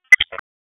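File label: Raleigh Police Southeast District Tactical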